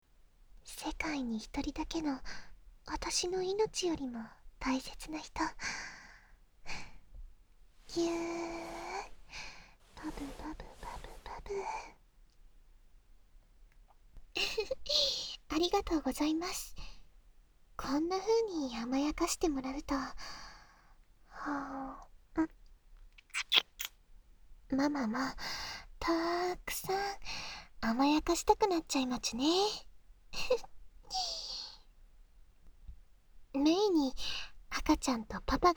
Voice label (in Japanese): 吐息たっぷり添い寝 ピロートーク; ママ学級; 汗だくＨのあとで……最高に幸せなピロートーク